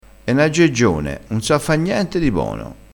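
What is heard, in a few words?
"aggeggione", Italian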